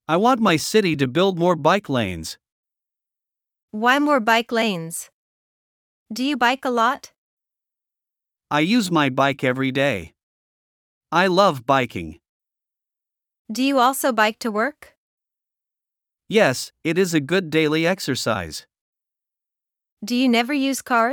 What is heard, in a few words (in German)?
Dialog; Fahrrad fahren; nachhaltigkeit-klimawandel